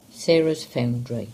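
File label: "Sarahs Foundary", English; place-names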